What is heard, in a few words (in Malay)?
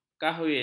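"kahwin", Malay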